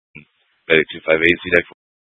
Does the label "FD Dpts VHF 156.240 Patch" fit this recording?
Yes